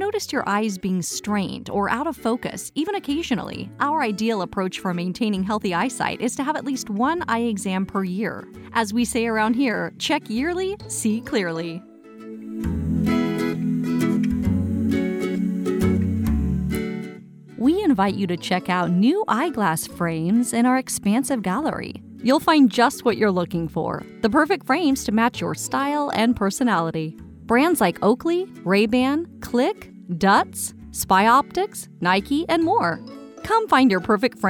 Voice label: On Hold, Commercial - Friendly & Authoritative; FoleyVision